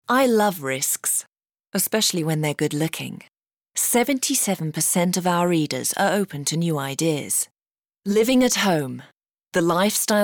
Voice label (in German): uk englisch, speaker